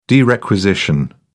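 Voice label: "derequisition", English, British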